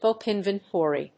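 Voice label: "book inventory", English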